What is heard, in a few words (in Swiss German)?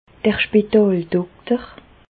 "spitaldokter", Bas Rhin; medecine; médecin hospitalier